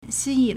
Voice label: "xi1yin3", Chinese; 吸引 xīyǐn; verb; to attract

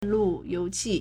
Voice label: "lu4you2qi4", Chinese; 路由器; lùyóuqì; router

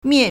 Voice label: "mie4", Chinese

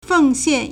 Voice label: "feng4 xian4", Chinese; 奉献 (奉獻) fèngxiàn; verb; to consecrate; to dedicate; to devote

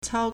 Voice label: "cao1", Chinese

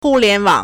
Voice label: "hu4 lian2 wang3", Chinese; 互联网; 互聯網; hùliánwǎng; proper noun; the Internet

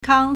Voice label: "kang1", Chinese

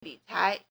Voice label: "lǐcái", Chinese; 理财; 理財; personal finance; financial management

